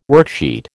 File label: "worksheet", American